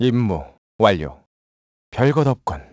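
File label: Vox Victory, Korean; Riheet; kings raid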